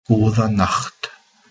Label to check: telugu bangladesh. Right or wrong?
Wrong